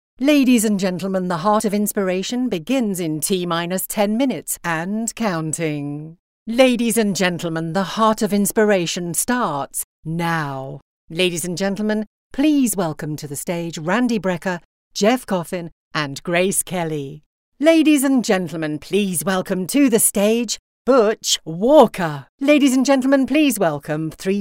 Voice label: VOG